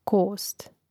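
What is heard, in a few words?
"kost", Croatian; Mrežnik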